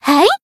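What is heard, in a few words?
Voice attack, Japanese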